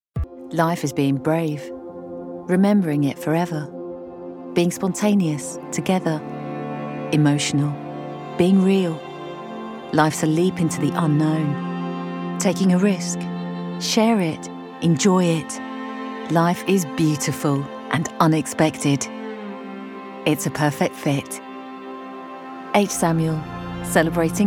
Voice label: Commercial